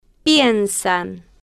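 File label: "pien-san", Spanish, female, slow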